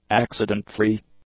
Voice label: "accident-free", english; adjectives

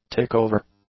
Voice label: "tick-over", English; nouns

